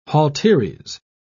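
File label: "halteres", British English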